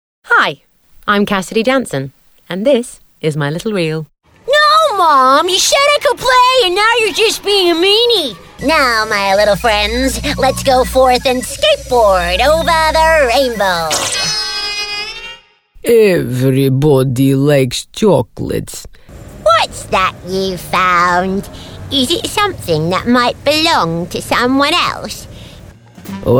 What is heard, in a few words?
Animation